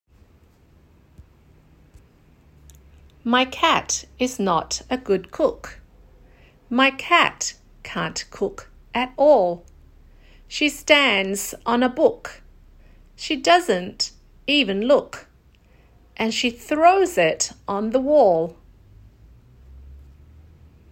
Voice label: oo chant, english phonics